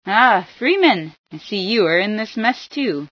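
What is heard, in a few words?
in mess too, female scientist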